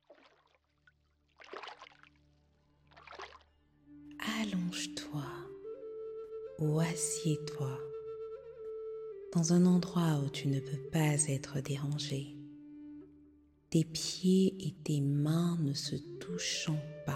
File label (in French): Manifestez Vos Reves Hypnose pour l'Abondance et le Succes